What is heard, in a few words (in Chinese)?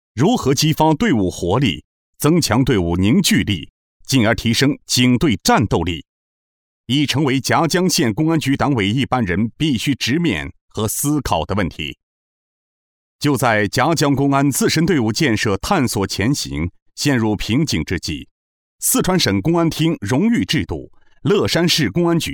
男-专题-法院解说词